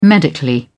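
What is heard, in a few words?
"medically", English, British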